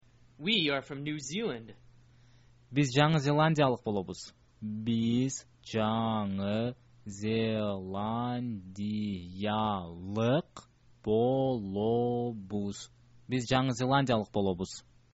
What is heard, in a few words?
we are from new zealand, kyrgyz